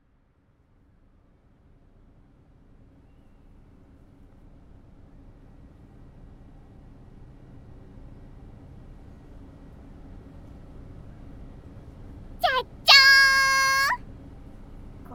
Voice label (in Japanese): エステティシャン、マッサージ体験, ASMR